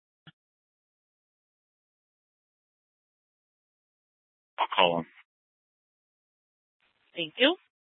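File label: Police Department Dispatch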